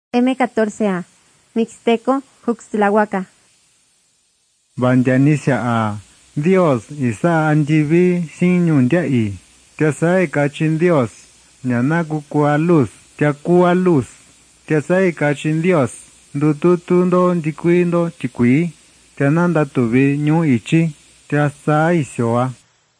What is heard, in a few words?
mixtec diagnostic spanish mexico